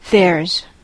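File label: "there's", US English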